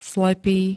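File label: "slepý", Slovak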